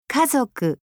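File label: "kazoku", Japanese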